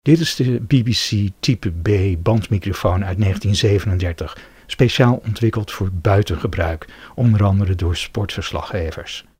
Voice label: BBC Type B sound NL

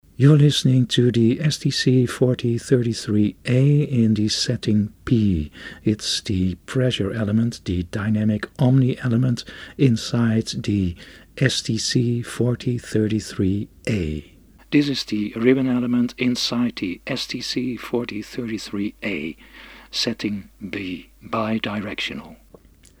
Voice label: STC 4033A 3 settings Sound UK

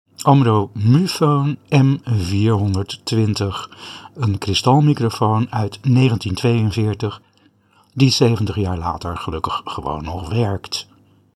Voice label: Mu Phone M 420 Sound NL

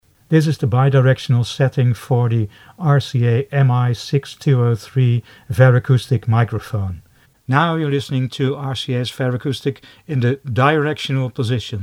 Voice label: RCA Varacoustic 3 settings

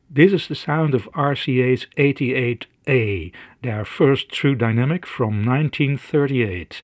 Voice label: RCA 88-A sound UK

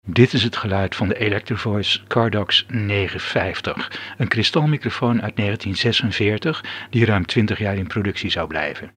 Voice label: Cardax NL good

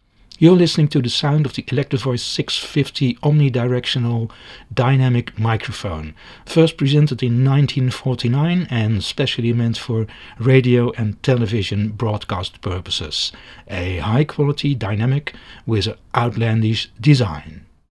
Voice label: EV 650 sound UK

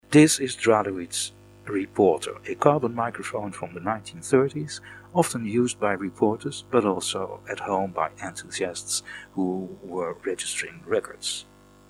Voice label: Dralowid reporter UK

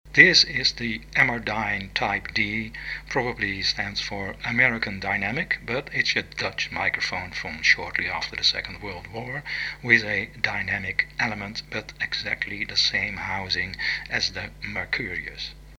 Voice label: Amerdyne Type D sound UK

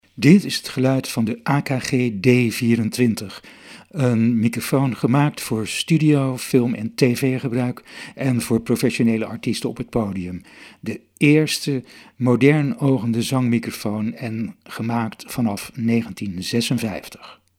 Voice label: AKG D24 sound NL

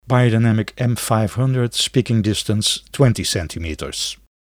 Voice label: Beyerdynamic M500

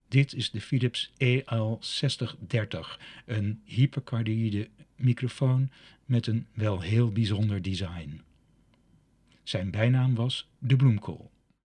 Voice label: Philips EL 6030 sound

